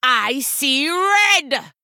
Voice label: Female Troll Attack